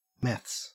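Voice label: "meths", Australian English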